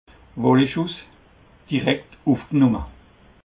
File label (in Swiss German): "voleeschuss", alsace